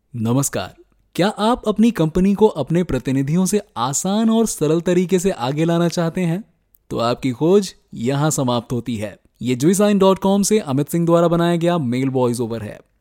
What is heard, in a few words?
Real Hindi Male Voiceover